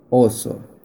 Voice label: Bear spanish

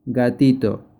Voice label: Kitten spanish

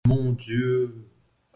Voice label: "Mon dieu", french

